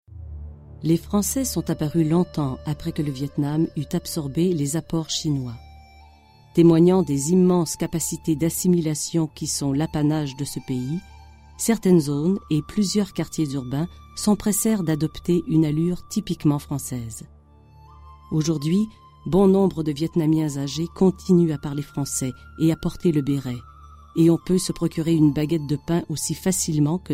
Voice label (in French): narration